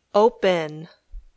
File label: "open", English